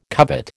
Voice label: "covet", American